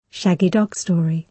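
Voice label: "shaggy dog story", British English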